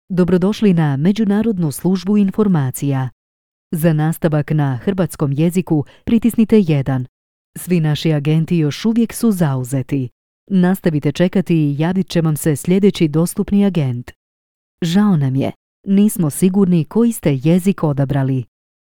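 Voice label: Croatian, female, IVR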